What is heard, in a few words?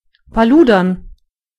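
chat, German voices words; gcompris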